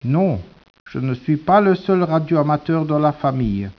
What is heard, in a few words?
speech, French